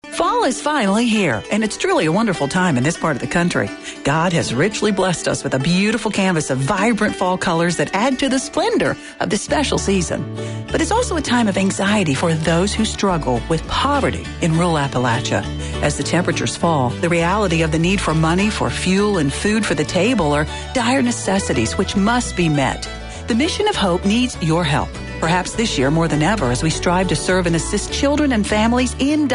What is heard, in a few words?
Radio; MoH